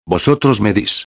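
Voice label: "medis", Spanish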